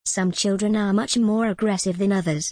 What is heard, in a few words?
en gb some children are much more aggressive than others